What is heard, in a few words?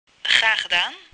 Dutch Welcome; ユーアーウェルカム; オランダ語; どういたしまして